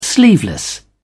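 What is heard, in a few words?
"sleeveless", British English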